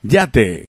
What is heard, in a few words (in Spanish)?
"yate", es